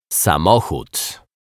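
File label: "samochod", Polish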